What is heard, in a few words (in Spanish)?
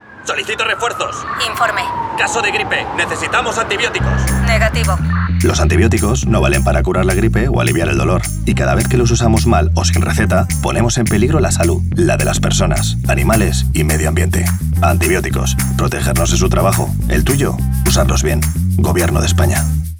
CUÑA ANTIBIOTICOS CASTELLANO